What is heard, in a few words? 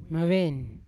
"meven", Yawa; empty; unat